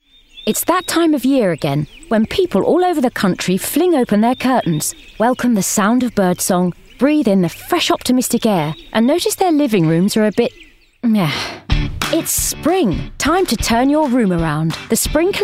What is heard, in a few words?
Commercial; DFS